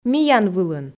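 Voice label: "миян вылын", Komi, female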